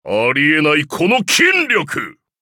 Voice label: levelup ja